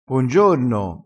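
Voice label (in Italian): "e tre ova", vocabolario dialettale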